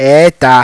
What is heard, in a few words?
"EETA", Yoruba